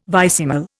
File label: "vicesimal", Indian